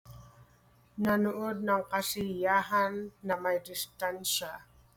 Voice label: TAGALOG